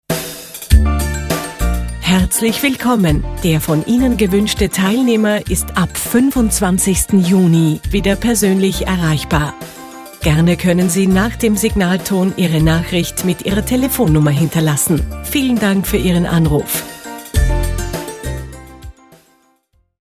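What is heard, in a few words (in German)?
Abwesend männlich universal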